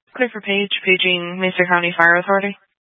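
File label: Fire Dispatch, scanner audio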